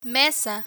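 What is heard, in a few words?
"mesa" Spanish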